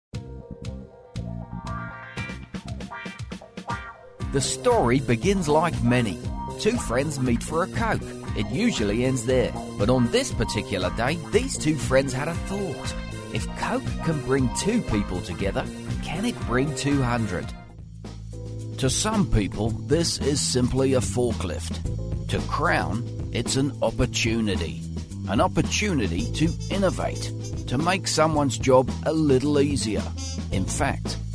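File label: english australian male; dictor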